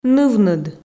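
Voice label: "нывныд", Komi, female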